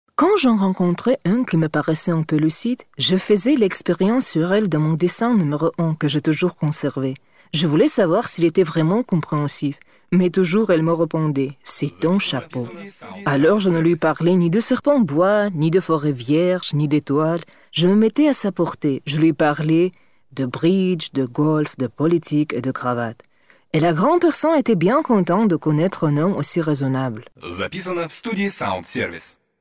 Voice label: fr text